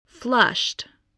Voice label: "flushed", English